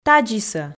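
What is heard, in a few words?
"тадзисӧ", Komi, female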